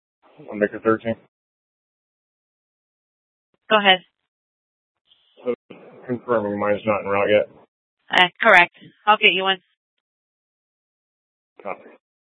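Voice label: police dispatch, scanner; Mesa PD; 1 Fiesta Dispatch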